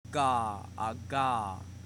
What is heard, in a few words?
Voiced velar stop; wikiversity